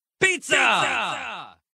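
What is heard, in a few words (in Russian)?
пицца эхо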